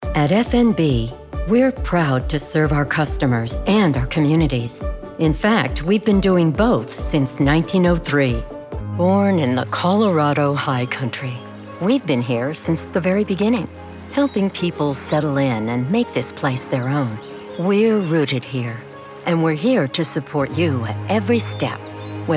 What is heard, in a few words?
Radio and TV, voiceover